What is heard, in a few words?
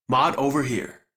Operator Voice B; Warframe; Operator Mission; Mod Marked; en